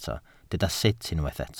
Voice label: "sut", Welsh